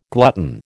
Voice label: "glutton", American

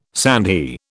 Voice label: "sandhi", British

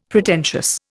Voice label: "Pretentious", Indian; Dictionary

